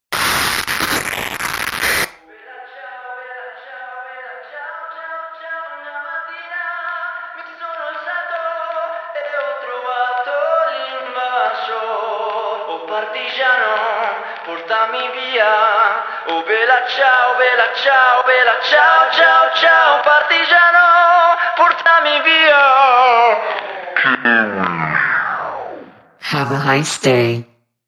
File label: Asian English Ad Binaural; Netflix Money Heist Spotify